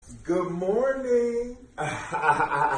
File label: good morning ahaha